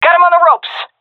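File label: round winning, female; vo; Counter Strike Model Pack; CS:GO; seal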